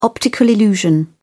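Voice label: "optical illusion", British English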